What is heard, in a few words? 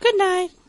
"good night", girl